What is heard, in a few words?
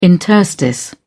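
"interstice", British English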